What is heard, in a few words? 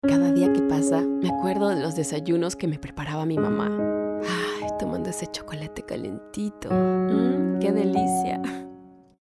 Testimonial Emotional Relatable; Hersheys